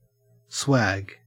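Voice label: swag, Australian; pronunciations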